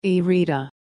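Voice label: "e-reader", English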